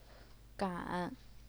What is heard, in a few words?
"gan3", chinese pinyin; 赶; 杆; 橄; 感; 擀; 秆; 澉; 敢